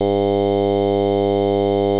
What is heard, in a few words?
male O